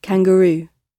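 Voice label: "kangaroo", British English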